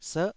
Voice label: "serh9", taiwanese syllable